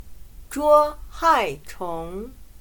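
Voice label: "捉害虫", Chinese; 棉花姑娘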